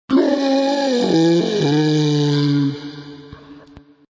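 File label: Ghostly God, A ghostly voice saying "God"; Horror; Devil Voices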